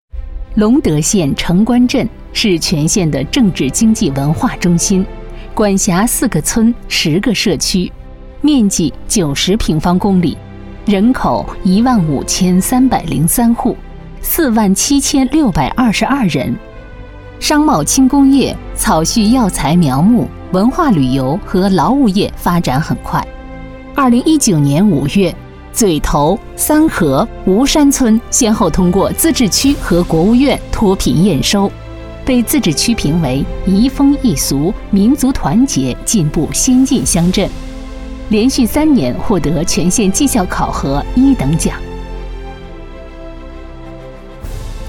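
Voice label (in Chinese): 专题 政府 工作纪实 大气, 女, 普通话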